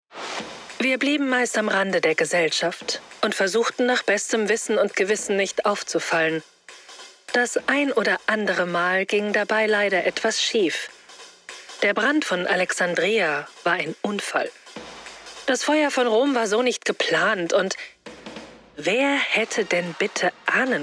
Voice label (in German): Werbung; Bookwave; Hörbuch App - dynamisch